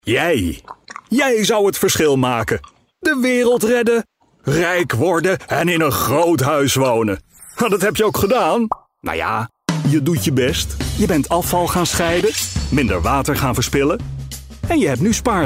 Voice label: vo medium sell character voice

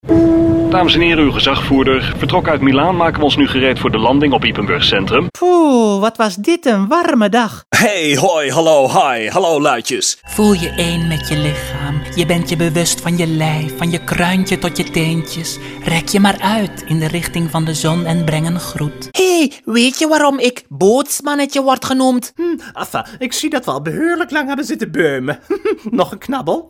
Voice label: DUTCH NL cartoon

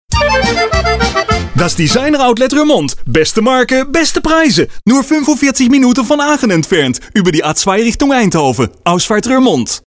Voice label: German with heavy Dutch accent

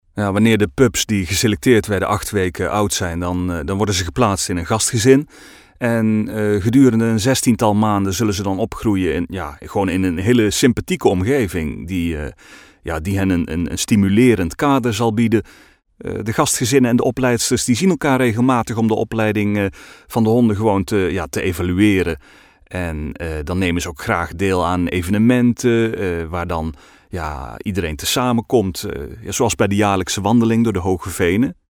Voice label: accent Brabant

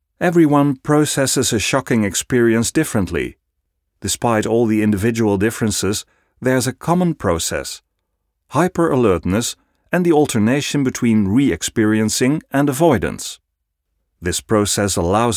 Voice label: English e-learning

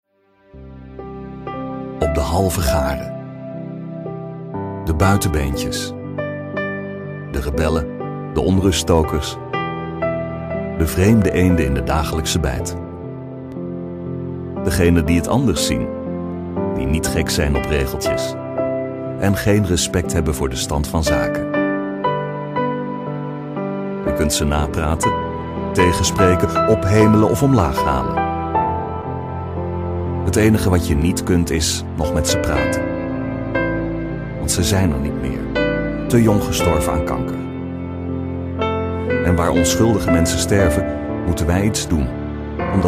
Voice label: soft sell charity

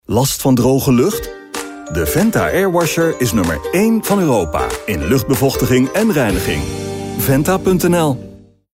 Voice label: radio commercial medium sell; Venta

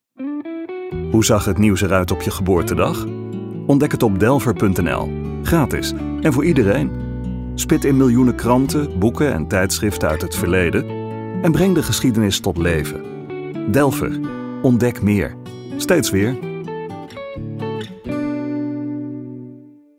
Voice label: soft-sell; Delpher

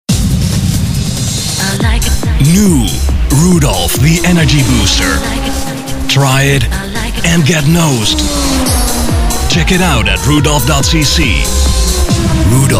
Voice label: hard sell US English

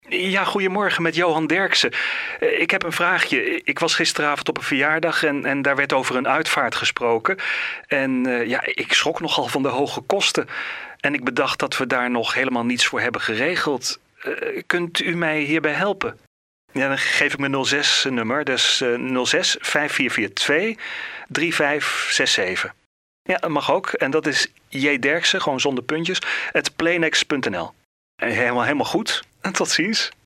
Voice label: character younger customer on phone

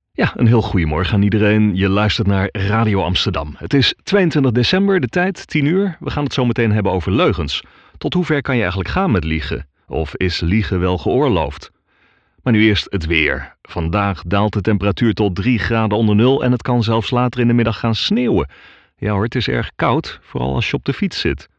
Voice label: character radio host

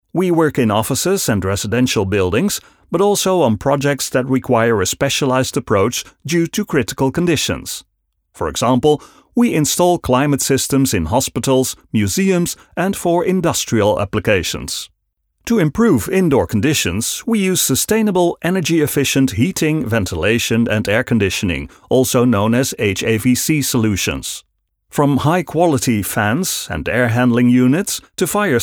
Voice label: English optimistic webvideo

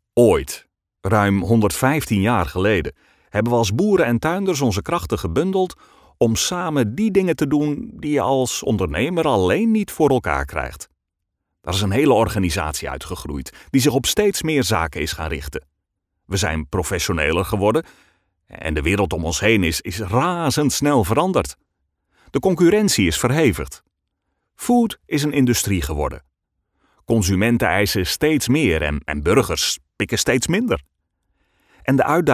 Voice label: character farmer

